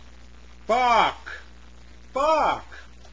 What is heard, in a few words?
"bark", pronounce it